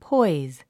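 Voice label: "poise", English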